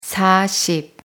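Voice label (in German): "vierzig", German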